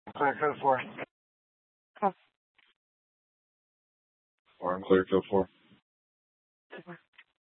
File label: Police Department Dispatch, Simulcast; Apache Junction; TOPAZ Regional Wireless Cooperative (TRWC); Mesa Simulcast